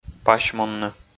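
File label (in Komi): "пасьмунны", Komi, male; audio dict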